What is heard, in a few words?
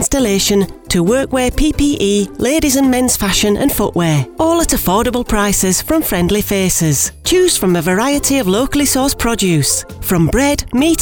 Morley Markets commercial